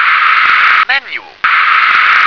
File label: "manual", English